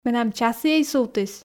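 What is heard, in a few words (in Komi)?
менам часіӧй сувтіс, Komi, female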